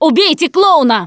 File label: see clowns, russian; Producer